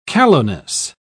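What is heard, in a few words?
"callowness", British English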